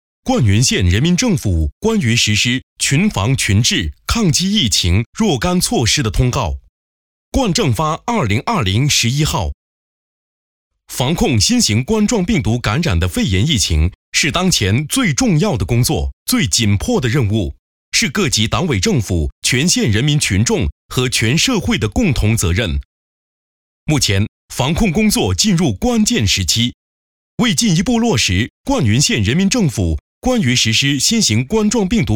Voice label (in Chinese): 新闻通告类